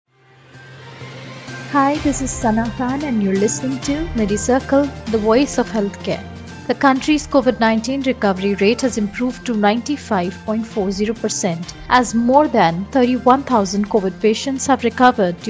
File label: english news